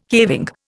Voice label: "caving", Indian

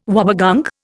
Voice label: "wobbegong", Indian

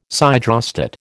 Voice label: "siderostat", American

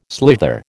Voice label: "slither", American

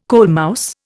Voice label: "coalmouse", Indian

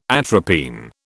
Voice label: "atropine", British

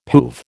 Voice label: "poove", American

